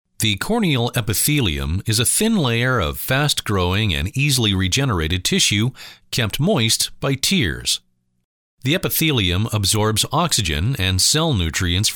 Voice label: Medical VO